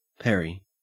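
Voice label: "peri", Australian English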